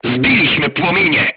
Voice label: voice message fire extinguished, polish

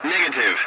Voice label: voice message no, english; War Thunder

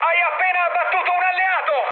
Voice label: player killed ally mood high, speech it

